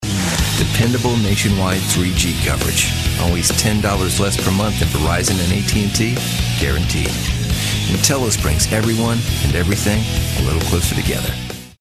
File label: soft spoken